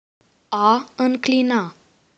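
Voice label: slanted, Romanian; PictureDictionary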